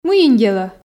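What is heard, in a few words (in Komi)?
мыйын делӧ, Komi, female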